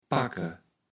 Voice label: "packe", kaevels platt

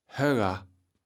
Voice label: "högger", kaevels platt

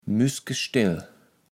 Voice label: "müskestell", kaevels platt

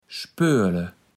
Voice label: "spoele", Platt

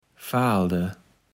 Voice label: "faalde", kaevels platt

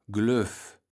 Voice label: "glöv", kaevels platt

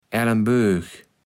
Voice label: "Ellenböög", kaevels platt

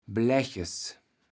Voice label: "Blaeches", kaevels platt

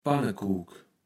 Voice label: "Pannekuk", Platt

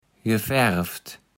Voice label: "gefäreft", kaevels platt

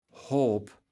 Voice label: "Hoop", kaevels platt

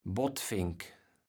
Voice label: "Bottfenk", kaevels platt

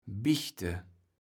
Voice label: "bichte", kaevels platt

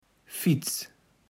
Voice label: "Fiets", Platt

